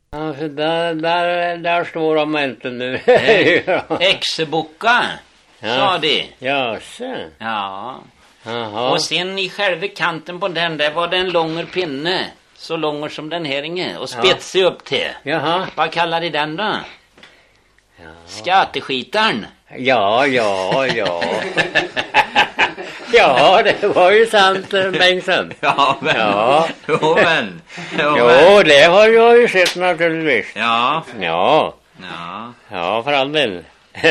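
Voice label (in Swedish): Gudhem Västergötland, dialekter; Folkminnen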